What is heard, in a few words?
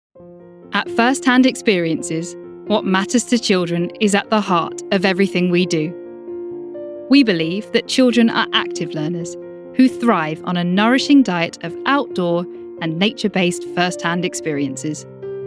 voiceover explainer